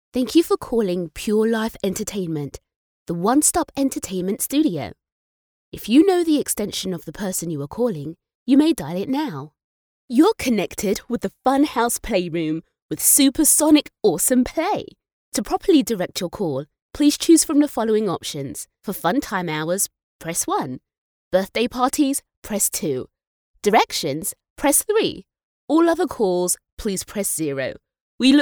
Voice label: IVR